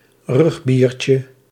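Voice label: "rugbyertje", Dutch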